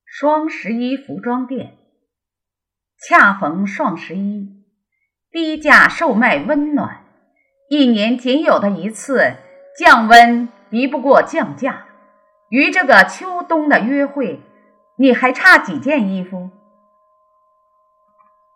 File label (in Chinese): 促销双十一服装店